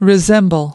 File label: "resemble", us